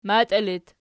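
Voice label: "matii" locative, Archi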